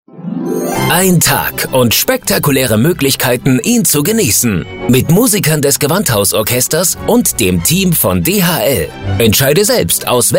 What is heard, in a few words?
commercial drops